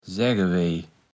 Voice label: "säggewej", Platt

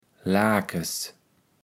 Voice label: "Laake s", kaevels platt

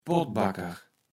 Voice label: "Pottbacker", Platt

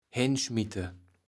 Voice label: "hennschmitte", kaevels platt

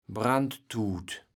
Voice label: "Brandtuut", kaevels platt

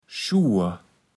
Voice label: "Schuur", platt

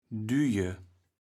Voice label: "düije", kaevels platt

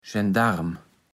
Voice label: "Schendarm", platt

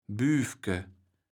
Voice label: "Büfke", kaevels platt

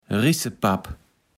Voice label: "Rissepapp", Platt

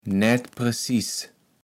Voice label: "naettpreßis", kaevels platt

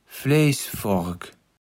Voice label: "Fleisfork", Platt

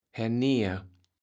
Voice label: "herneer", kaevels platt

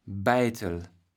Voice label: "Beitel", kaevels platt

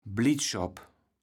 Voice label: "Blittschopp", kaevels platt

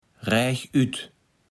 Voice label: "rächütt", Platt

